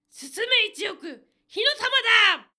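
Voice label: male; 男性 「進め一億、火の玉だ！」; パワフル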